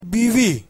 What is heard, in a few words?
urdu wife